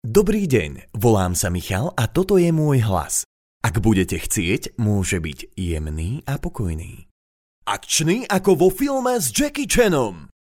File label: Slovakia male, standard; lektoring